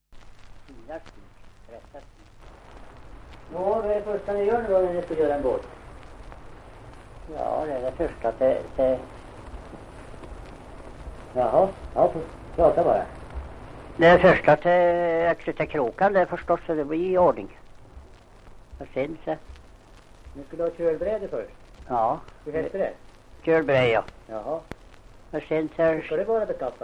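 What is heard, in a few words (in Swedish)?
Linsäll Hälsingland, dialekter, svenska sagor; Folkminnen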